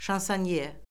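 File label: "şansonye", Azerbaijani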